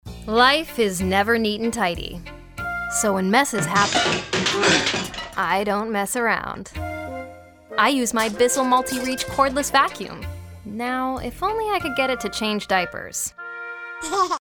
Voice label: Young Mom